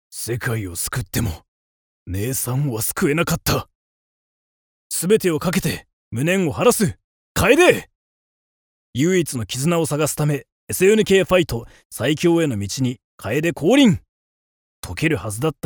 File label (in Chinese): 日籍日语男游戏广告样音